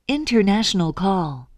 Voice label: international call; asterisk